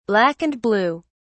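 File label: "black and blue", British English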